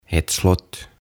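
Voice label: "Ett slott", Swedish; Linna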